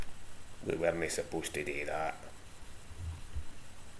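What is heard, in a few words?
"wernie", slang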